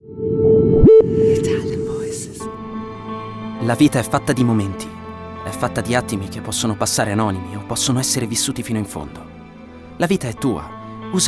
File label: ITALIAN VOICES Intense Narrative, male voices